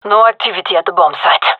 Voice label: bombsite clear, gendarmerie fem; vo